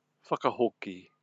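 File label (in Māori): "whakahoki", Māori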